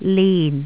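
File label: "lin4", Jyutping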